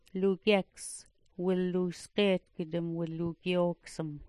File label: "lugyeks", smalgyax